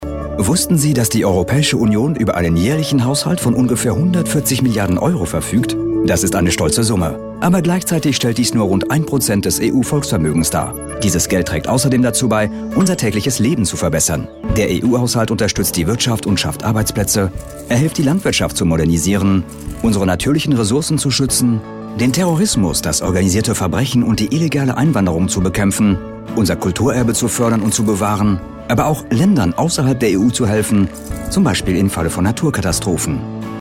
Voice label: male german voice over talent narrative

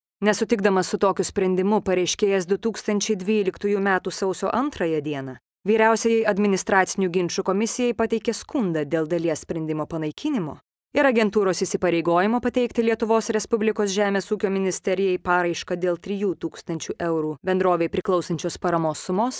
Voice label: female lithuanian voice over narrative

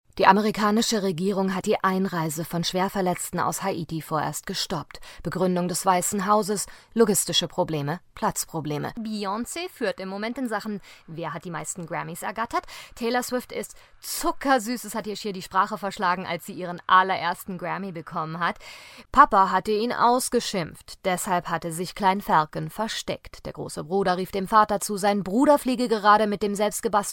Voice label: female german voice over narrative

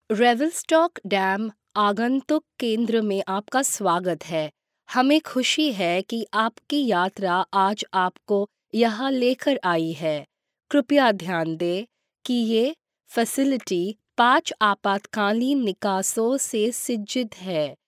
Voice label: female hindi voice over narrative

